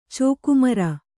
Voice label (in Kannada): "ಚೋಕುಮರ", Kannada